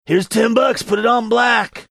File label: gruff voice